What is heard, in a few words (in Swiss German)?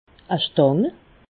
"stanga", alsace